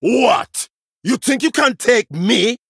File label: Male Troll